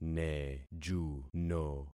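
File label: "nejuno", concatenated syllables; Affixing experiment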